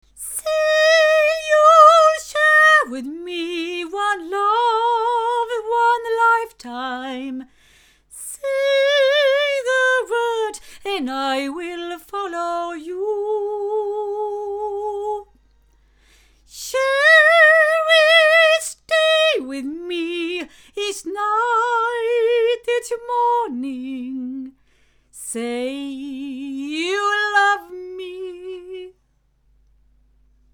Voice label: female; Lauten Oceanus; cardioid; microphones